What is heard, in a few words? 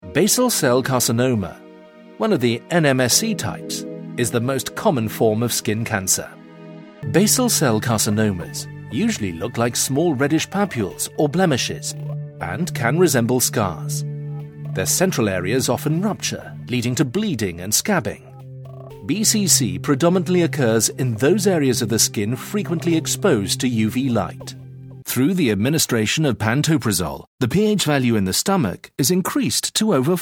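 English British, male, narration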